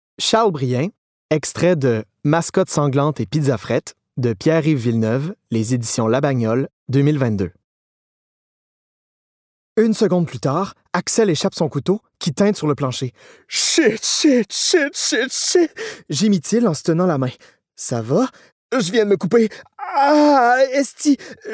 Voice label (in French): Livre Audio